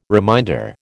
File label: "reminder", American